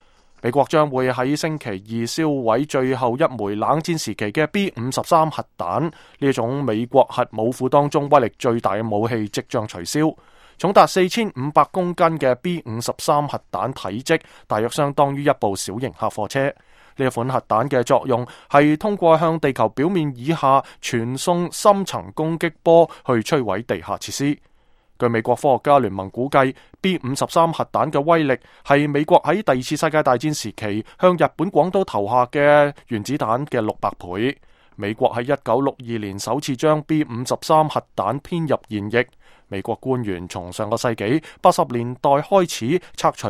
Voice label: cantonese; Nuclear